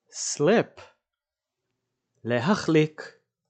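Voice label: "slip" English, "להחליק" Hebrew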